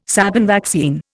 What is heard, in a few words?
"Sabin vaccine", Indian